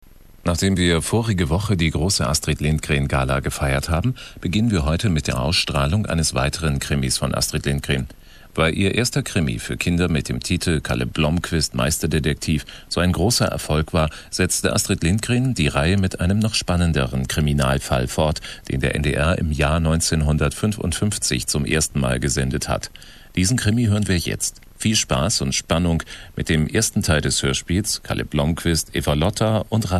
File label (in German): Ansage; Astrid Lindgren; Kalle Blomquist, Eva-Lotta und Rasmus Teil 1